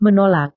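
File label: "menolak", Indonesian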